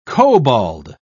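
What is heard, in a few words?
"kobold", English, British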